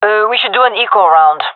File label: request eco, gendermerie fem; vo